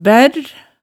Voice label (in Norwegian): "bærr", hemsedalsmaalet tale